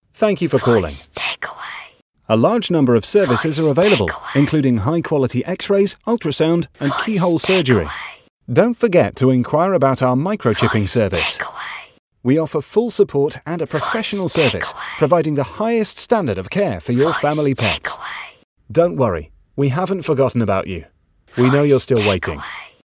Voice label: Vets Smooth Male

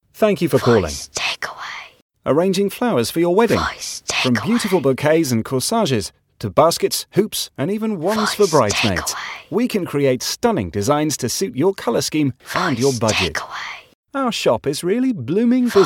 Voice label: Florists Smooth Male

